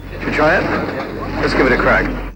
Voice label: LoFi Phrases, LoFi Vocals; Vengeance Samples